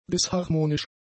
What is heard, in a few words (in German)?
"disharmonisch", German; adjectives